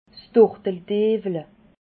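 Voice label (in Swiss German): "turteldiwle", Alsatian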